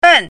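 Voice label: "ben4", Chinese pinyin, fourth tone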